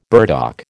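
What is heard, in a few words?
"burdock", American English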